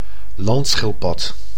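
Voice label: "landschildpad", Dutch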